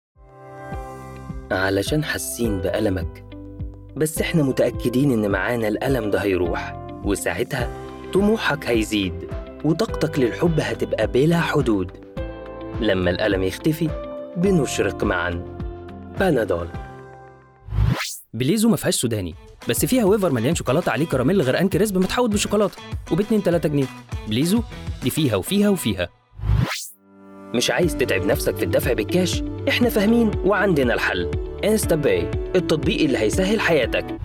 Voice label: EG Commercial